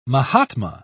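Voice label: "mahatma", British English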